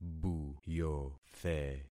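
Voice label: "buyothe", concatenated syllables; Affixing experiment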